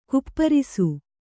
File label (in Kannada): "ಗೋಪರಸ", Kannada